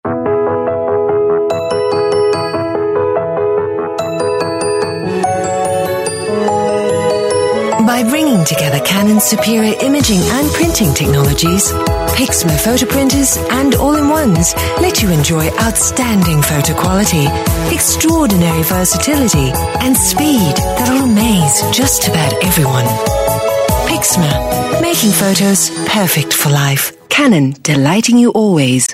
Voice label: Asian English TVC, Singaporean Female